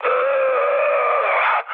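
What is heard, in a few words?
Serbian damaged pilot mood med, speech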